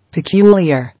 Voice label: "Peculiar", English